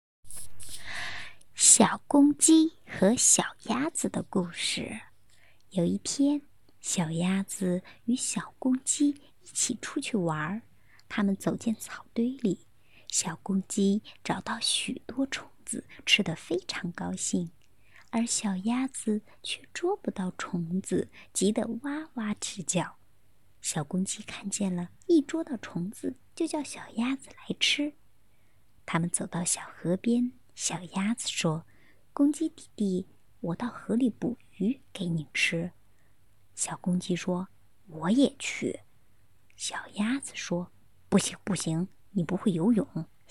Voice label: 儿童 故事

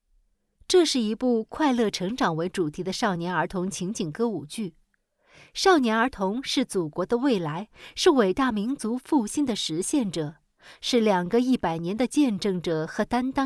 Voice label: 旁白 解说 女声

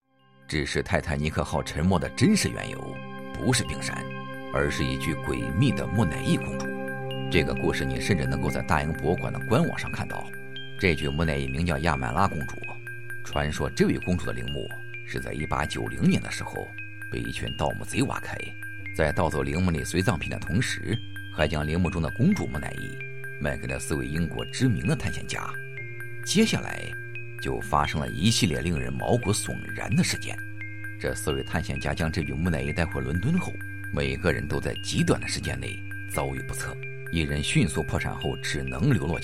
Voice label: 纪录片解说

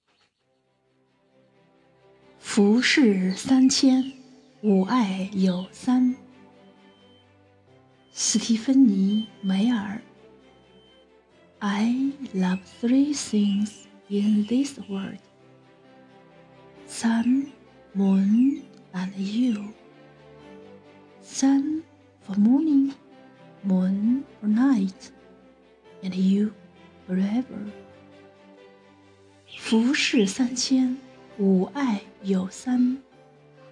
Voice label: 中英文

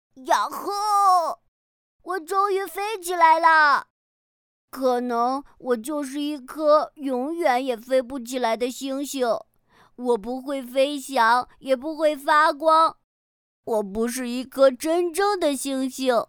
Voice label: 憨憨男童

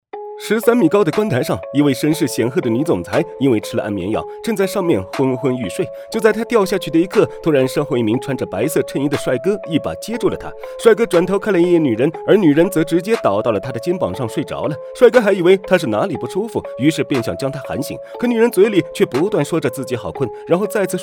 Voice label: 电影解说

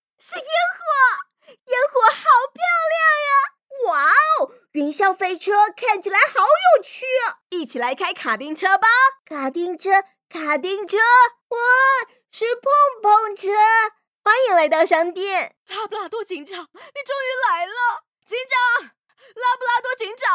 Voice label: 兒童 遊戲 卡通 變聲 各種 角色 活潑 靦腆 調皮 焦急 主婦

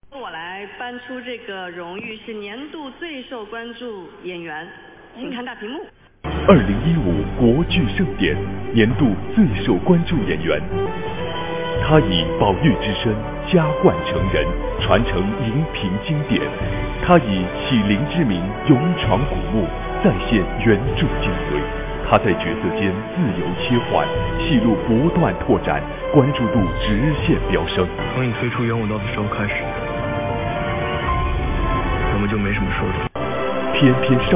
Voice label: 颁奖典礼 旁白

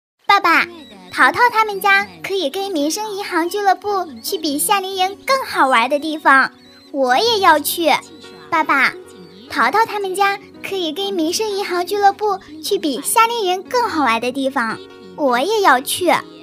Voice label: 女孩710岁

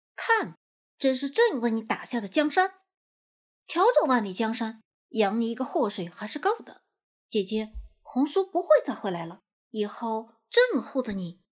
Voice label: 正太音

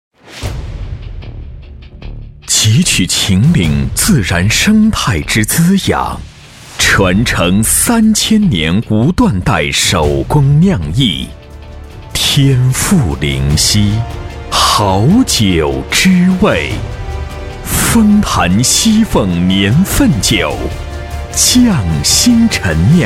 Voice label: 酒广告; 封坛西凤酒

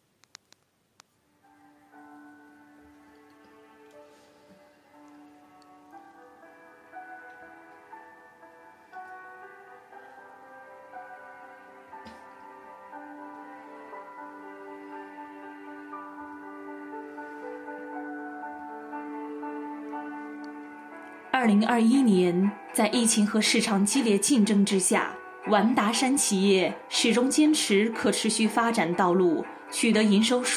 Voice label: 企业总结宣传片, 女配音, 青年（18-35）, 普通话, 企业年会总结纪录片配音解说

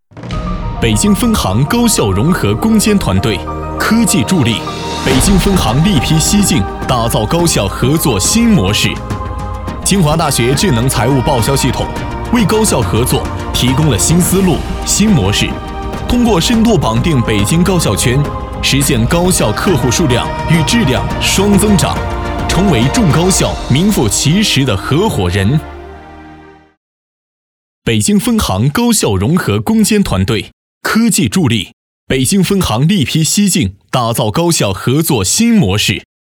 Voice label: 专题片

